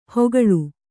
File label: "ಹೊಗಳು", Kannada